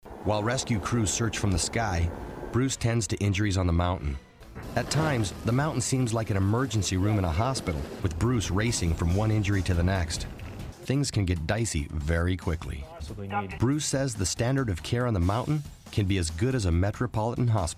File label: Male, promos